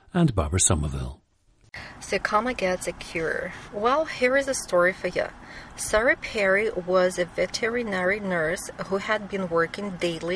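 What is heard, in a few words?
Lithuania, dialects of English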